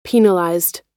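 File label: "penalized", American English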